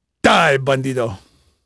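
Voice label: Spanish male, voice; Pirates Of The Caribbean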